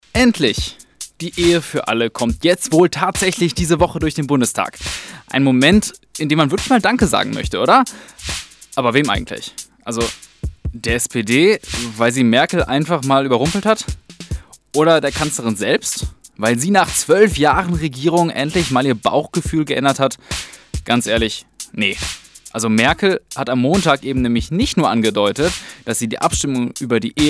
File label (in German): Kommentar; Merkel